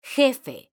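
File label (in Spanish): "jefe", Spanish, female; mekanta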